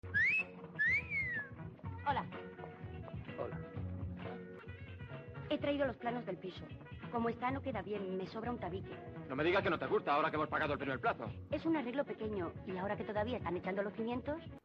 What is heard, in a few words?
spanish